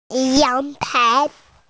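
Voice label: "balcony", Mandarin Chinese, female; yang2tai2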